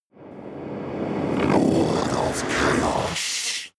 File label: demon dark voice reverse lord of chaos